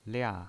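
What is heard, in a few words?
"lia", pinyin, Mandarin Chinese, fourth tone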